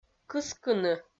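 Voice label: "кыскыны", Komi, female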